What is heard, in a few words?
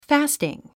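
"fasting", English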